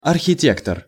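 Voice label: "arhitektor", Russian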